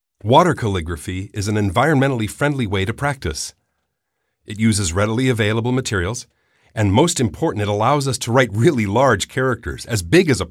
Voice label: voices ENGLISH male adult USA; KER-SOUND